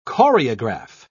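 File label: "choreograph", British English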